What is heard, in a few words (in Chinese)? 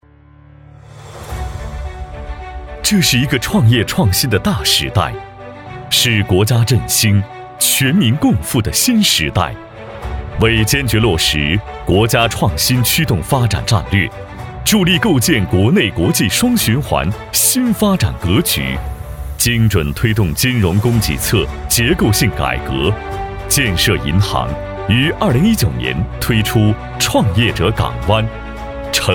男, 银行宣传样音; 建设银行杭州分行创业者港湾项